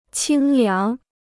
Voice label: "清凉", Chinese

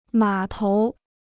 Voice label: "码头", Chinese

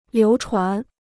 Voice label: "流传", Chinese

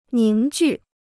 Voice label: "凝聚", Chinese

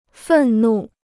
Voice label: "愤怒", Chinese

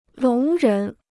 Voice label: "聋人", Chinese

